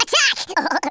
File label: FIRE, Speech, Wacky